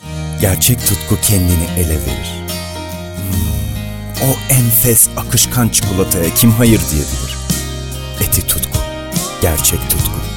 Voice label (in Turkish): sicak samimi